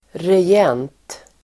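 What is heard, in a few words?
"regent", Swedish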